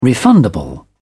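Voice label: "refundable", British English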